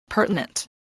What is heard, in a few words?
"pertinent", voice, English